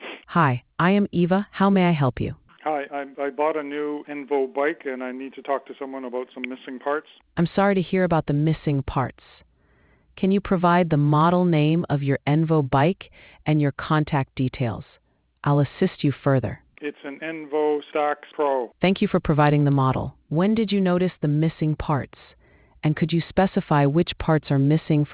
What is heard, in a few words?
conversation